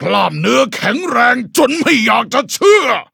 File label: level up, th; cookie run